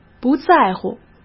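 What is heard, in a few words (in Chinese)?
"不在乎", Mandarin Chinese; hsk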